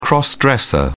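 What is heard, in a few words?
"cross-dresser", British English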